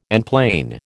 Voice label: "enplane", American